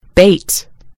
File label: "bait", en GB; gcompris